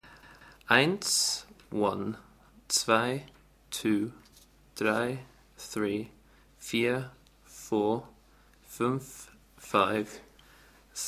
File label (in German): Deutsch Englisch